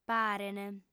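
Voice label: "parent" Sardinian, female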